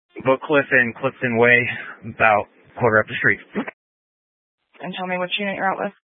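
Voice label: Sheriff Dispatch, scanner audio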